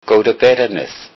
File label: get well soon, lowsaxon